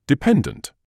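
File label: "dependant", British English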